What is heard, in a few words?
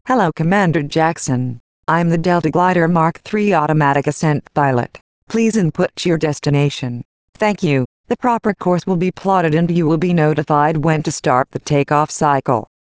entry Female